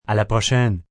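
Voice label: Until Later French, Goodbyes, male, foreign, French ' A la prochain' (until later); Greetings And Goodbye; human voice speaking; Voice Box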